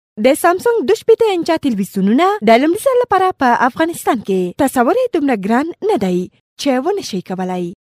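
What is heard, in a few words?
pashto female voice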